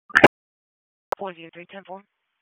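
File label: Police Dispatch; Wake Forest Police Disp; p25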